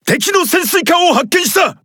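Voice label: Speech Detection Enemy Sub, Japanese; World Of Warships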